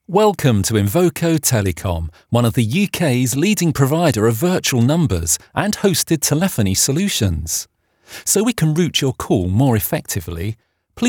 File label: voice greeting message; Invoco